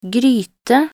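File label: "gryte", Norwegian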